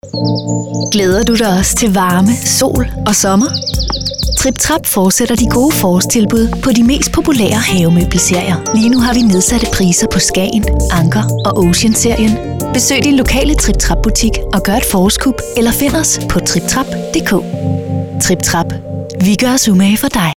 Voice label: Denmark Speak; Trip-Trap